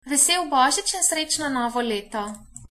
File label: christmas slovenian